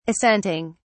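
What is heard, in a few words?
"assenting", British English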